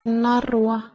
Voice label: "narwa", Proto Germanic

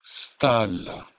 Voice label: "stalla", Proto Germanic

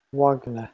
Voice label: "wagna", Proto Germanic

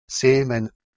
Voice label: "semen", Latin

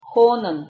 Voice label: "xonyn" Ossetian

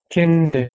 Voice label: "kindi", Proto-Germanic

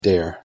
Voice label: "dearr", Anglo Saxon

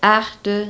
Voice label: "aehta" Anglian

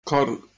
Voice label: "corn" Anglo Saxon

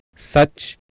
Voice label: "sac", Sanskrit

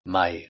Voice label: "maeg", Anglo Saxon

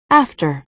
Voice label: "aefter", Anglo Saxon

